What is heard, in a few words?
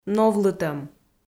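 "новлытӧм", Komi, female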